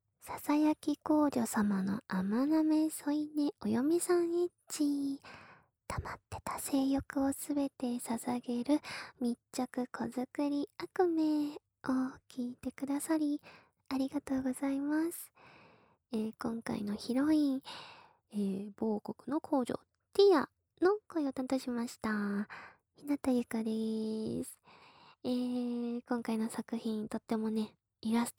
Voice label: free talk; フリートーク; KU100; 囁き皇女さまの甘舐め添い寝お嫁さんエッチ～溜まってた性欲を全て捧げる密着子作りアクメ!～